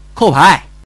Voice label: Kou Pai, Man; TianDaKeng